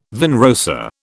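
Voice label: "vin rosé", British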